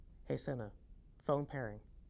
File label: Phone Pairing, voice command; 50series